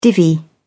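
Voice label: "divvy", British English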